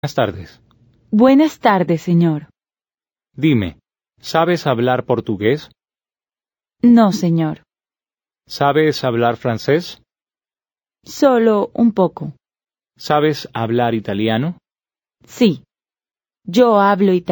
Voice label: Dialogue, Spanish